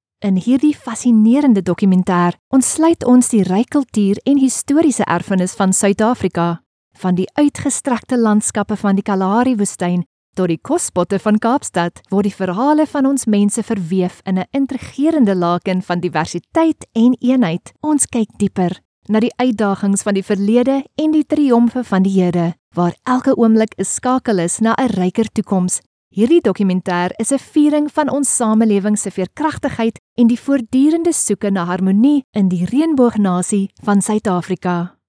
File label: afrikaans others; Synchronsprecher aus Südafrika